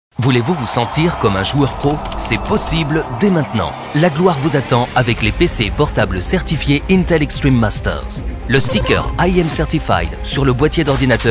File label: french promos